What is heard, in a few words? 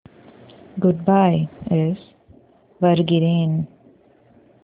Goodbye India Tamil